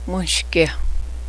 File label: "beans", cheyenne